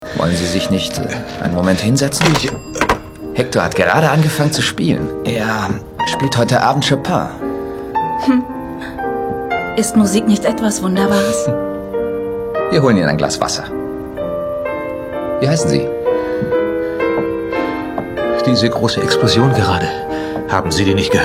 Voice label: rolle freundlich psycho; caden; wayward pines

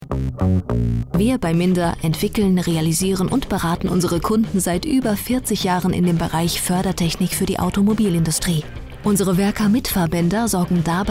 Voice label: erklaerfilm; minda werkermitfahrbainder

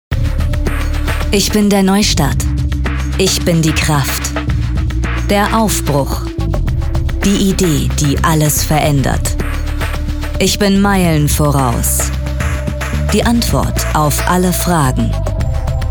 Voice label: spot auto stark

